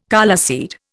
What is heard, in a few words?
"coleseed", Indian